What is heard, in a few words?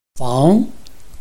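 "fang", Chinese, tone 2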